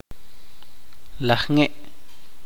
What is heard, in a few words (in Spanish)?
"diez", Chuj